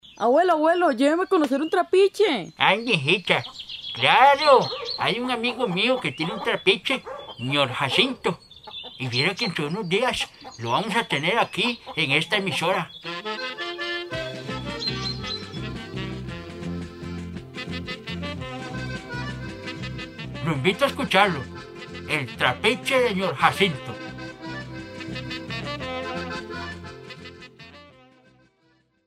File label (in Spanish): promo; novela